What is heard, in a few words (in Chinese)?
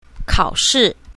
"kao3shi4", mandarin